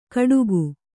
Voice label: "ಕಡುಗು", Kannada